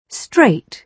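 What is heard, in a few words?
"straight", British English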